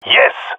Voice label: cheer, jungle male epic; agents